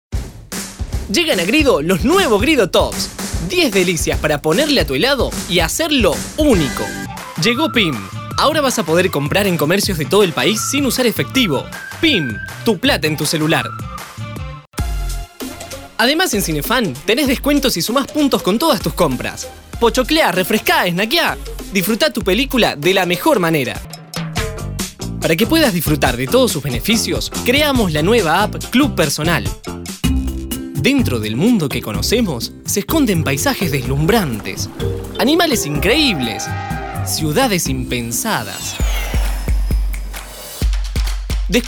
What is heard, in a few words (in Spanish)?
Medios Agil Vendedor, voces masculinas